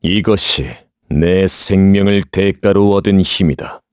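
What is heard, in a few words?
Vox korean; voices; heroes; Clause ice; kingsraid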